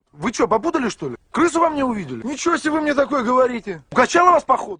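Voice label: "have you lost your mind", Russian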